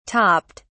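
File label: "topped", British English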